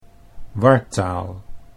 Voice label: "wartaal", Dutch

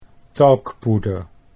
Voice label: "talkpoeder", Dutch

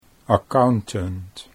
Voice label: "accountant", Dutch

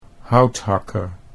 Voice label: "houthakker", Dutch

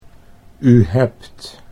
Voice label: U hebt, Dutch

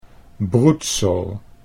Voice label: "broedsel", Dutch

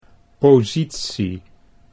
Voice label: "positie", Dutch

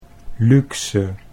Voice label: "luxe", Dutch

